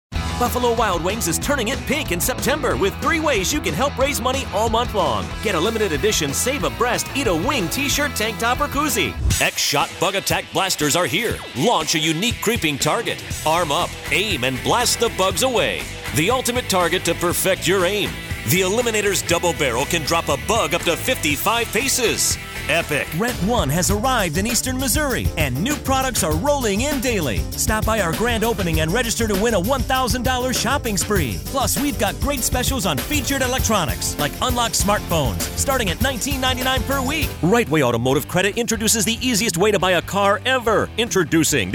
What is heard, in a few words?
hard sell commercial